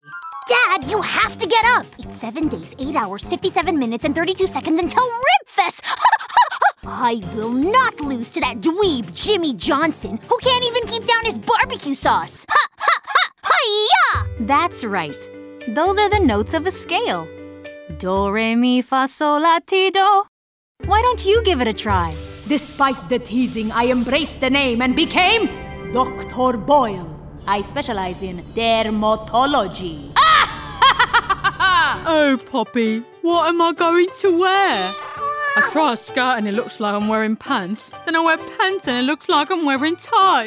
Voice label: Animation English

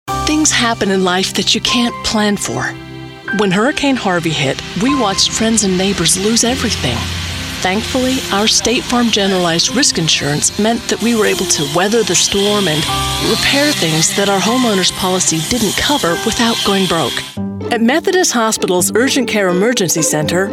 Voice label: CAT Commercial

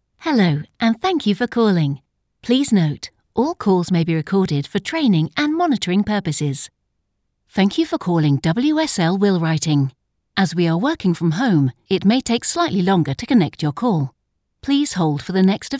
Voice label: IVR

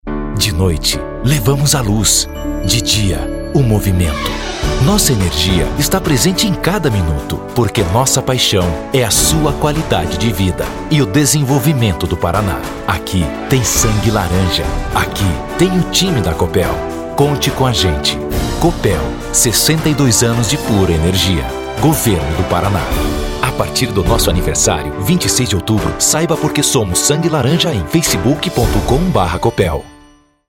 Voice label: spot; COPEL; 62 Anos

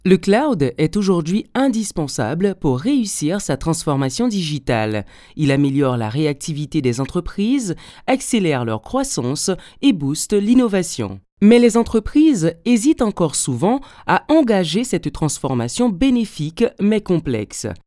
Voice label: French Elearning

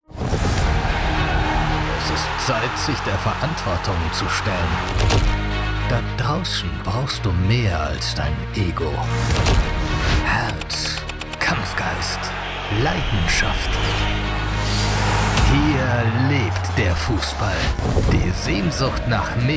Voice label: TRAILER; MagentaSport

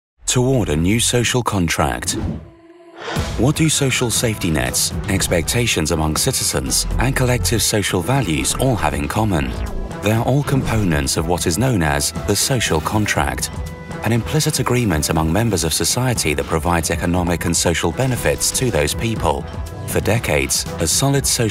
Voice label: Corporate

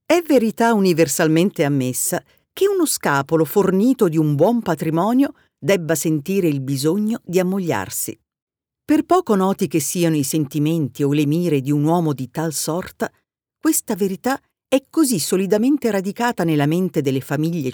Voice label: Audiobook

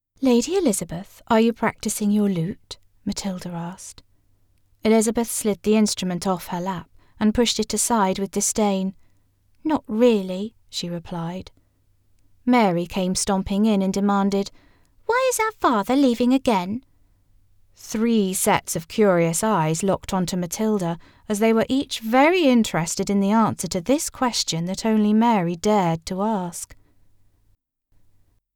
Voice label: audiobook

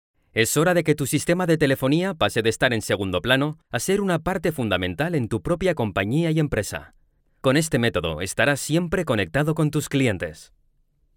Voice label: corporate

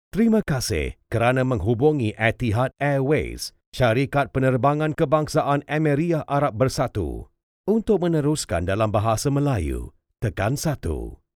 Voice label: IVR Malay

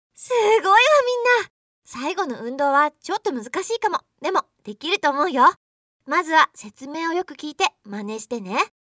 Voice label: instructor girl voice jp

